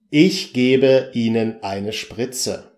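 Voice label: ich gebe ihnen spritze, German; beim arzt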